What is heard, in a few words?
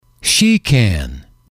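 "she can", English, male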